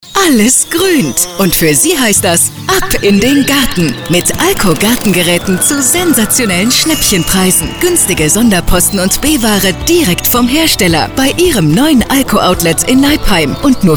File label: Radiospot; English (Australian); ALKO